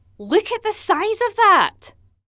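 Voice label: bfb warning voice over; BTDB2; bonnie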